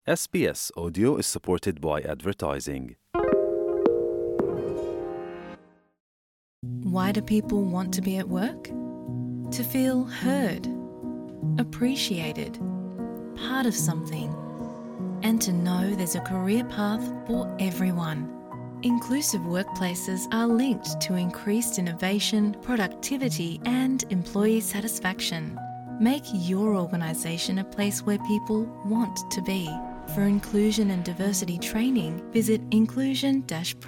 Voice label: gujarati newsflash; sbs